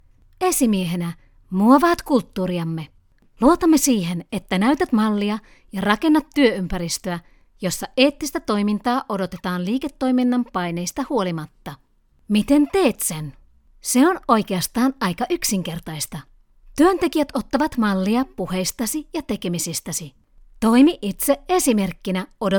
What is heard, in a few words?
e-learning Manager